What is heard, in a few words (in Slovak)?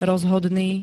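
"rozhodný", Slovak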